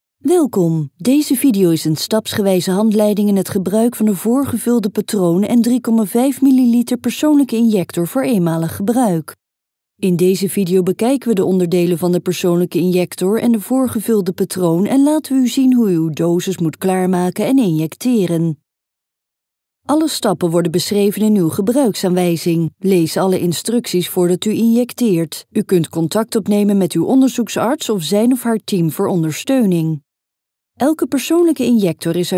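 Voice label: female, Dutch, narration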